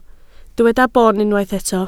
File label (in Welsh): "boon" Welsh